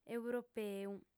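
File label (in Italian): "europeu", lingua sarda, female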